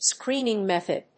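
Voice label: "screening method", English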